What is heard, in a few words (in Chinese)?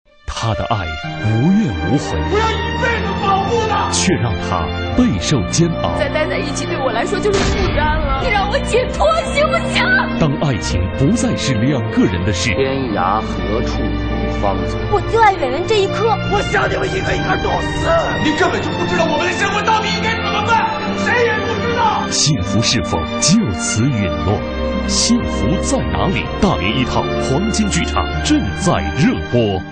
男 电视剧预告; 幸福在哪里